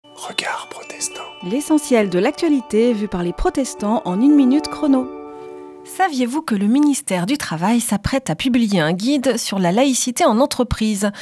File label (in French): Spot radio; Regards protestants